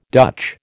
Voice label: "Dutch", American